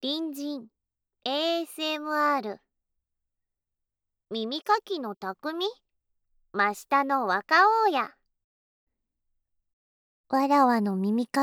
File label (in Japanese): タイトルコール, ASMR